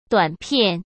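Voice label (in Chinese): "短片", Mandarin Chinese; hsk; chinese-flashcards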